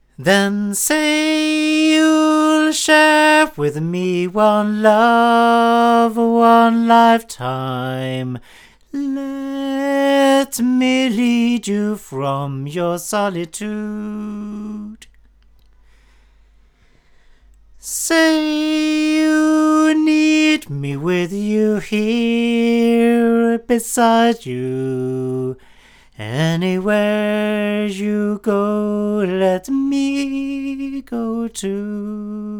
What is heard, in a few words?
cardioid male, microphones; Lauten Oceanus